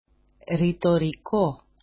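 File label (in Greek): "ritoriko", Greek; ρητoρικό; rhe'torical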